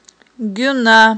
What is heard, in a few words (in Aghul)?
"гюна", Aghul